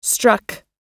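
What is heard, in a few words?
"struck", American English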